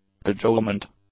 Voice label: "cajolement", English; nouns